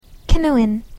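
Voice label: "canoeing", English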